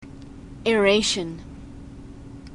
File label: "aeration", glossary